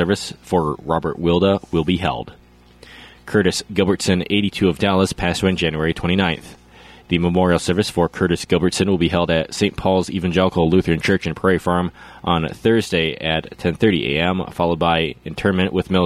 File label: Funeral Announcements